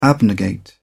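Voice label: "abnegate", British English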